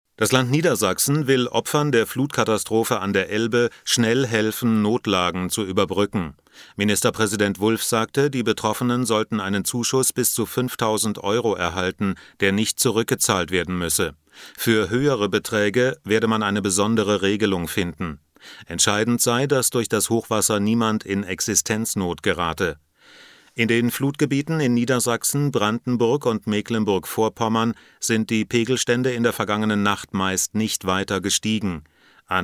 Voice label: nachrichten

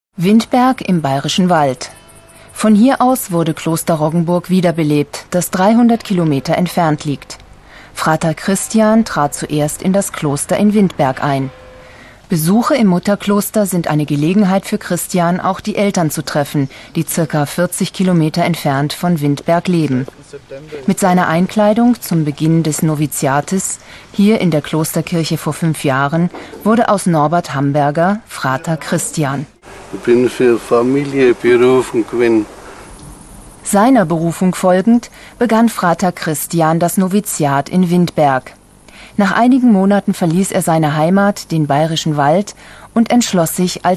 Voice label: doku portrait

